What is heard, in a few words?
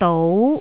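"dou2", Cantonese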